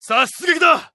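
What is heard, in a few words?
Japanese Neutral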